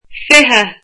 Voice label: twenty, irish

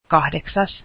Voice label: eighth, Finnish

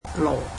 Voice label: "donkey", cantonese

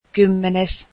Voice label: tenth, finnish

